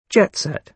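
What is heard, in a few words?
"jet set", British English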